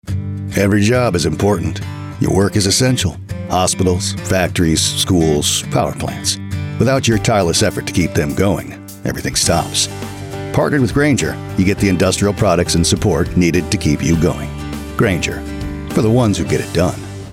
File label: Textured, voices